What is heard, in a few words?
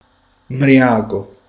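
"ubriaco", Italian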